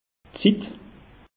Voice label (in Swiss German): "zit", alsace; meteo